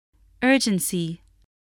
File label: "urgency", English